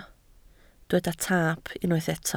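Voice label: "taap" Welsh, spoken word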